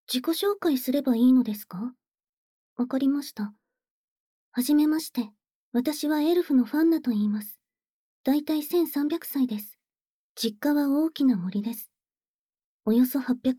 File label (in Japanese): 孤高なエルフの自己紹介, ASMR